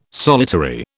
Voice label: "solitary", British